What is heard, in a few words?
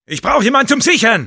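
help me capture, German; Sniper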